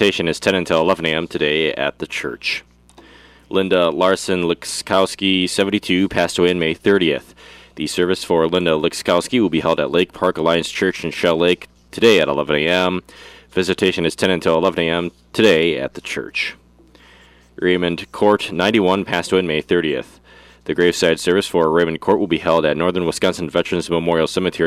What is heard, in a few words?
Friday Funeral Announcements